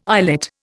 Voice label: "islet", Indian